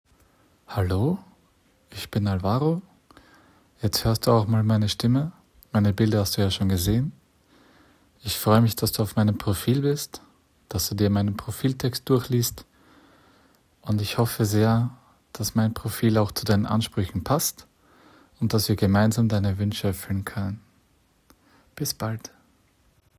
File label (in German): Escort Mann Stimmenprobe Deutsch